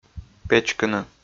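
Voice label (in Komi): "печкыны", Komi, male; audio dict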